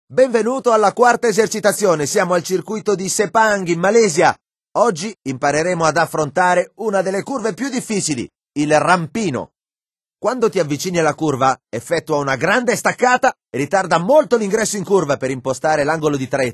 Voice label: hairpin italian; MotoGP 3